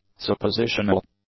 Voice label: "suppositional", English; adjectives

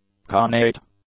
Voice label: "connate", English; adjectives

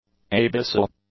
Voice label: "abyssal", english; adjectives

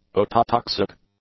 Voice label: "ototoxic", English; adjectives

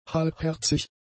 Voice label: "halbherzig", German; adjectives

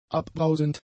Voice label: "abbrausend", German; adjectives

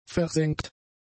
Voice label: "versenkt", German; adjectives

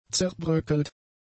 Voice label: "zerbröckelt", German; adjectives

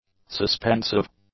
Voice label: "suspensive", English; adjectives

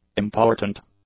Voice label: "important", English; adjectives

